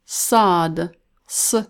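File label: "sad", Arabic letter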